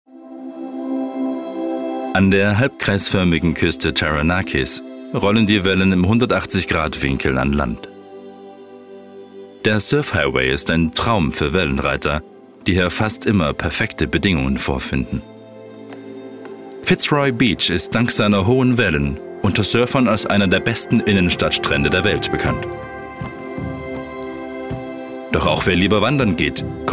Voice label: german documentaries

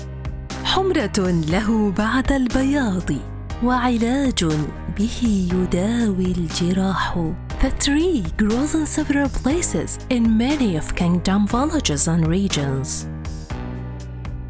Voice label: english audiobooks

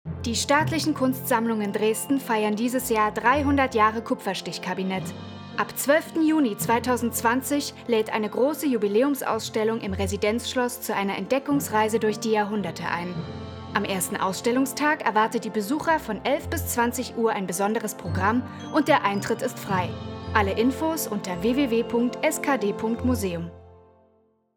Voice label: german radio imaging

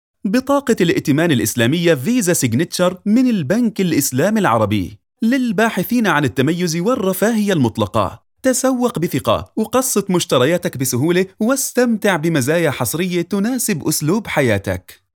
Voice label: arabic promos

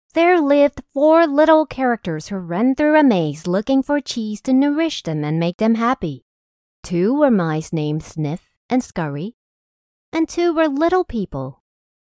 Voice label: english audiobooks

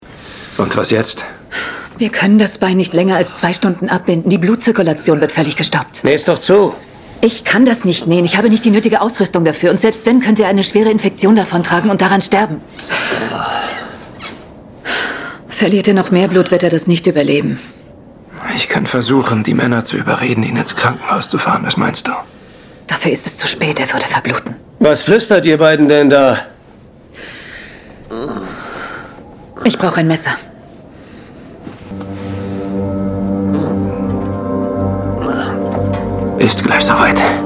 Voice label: german tv shows

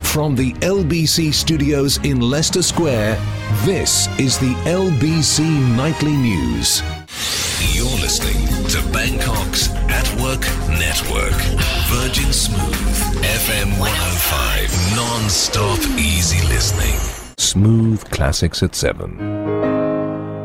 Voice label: english british promos

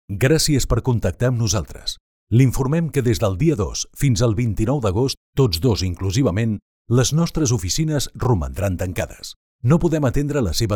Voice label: Catalan IVR

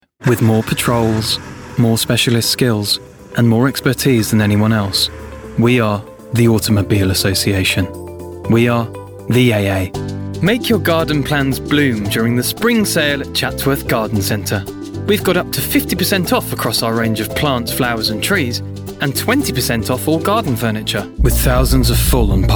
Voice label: english british commercial

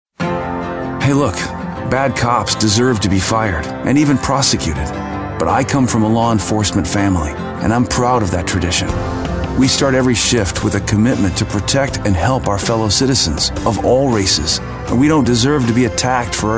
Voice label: english american political ads

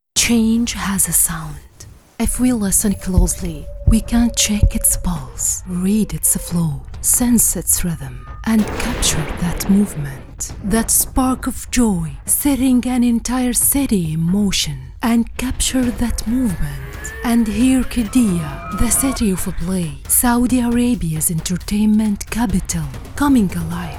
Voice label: english narration

